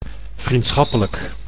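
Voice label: "vriendschappelijk", Dutch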